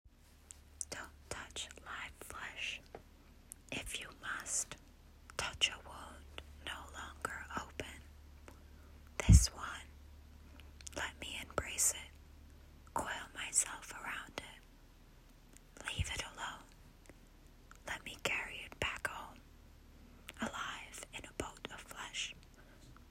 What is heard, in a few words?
Whispered Poetry